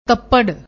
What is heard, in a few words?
"tappad", Punjabi; ਤੱਪੜ